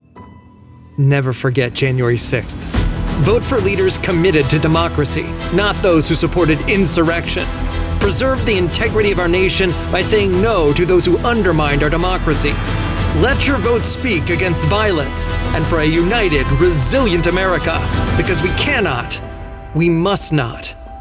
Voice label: Mission Driven Political Ad VO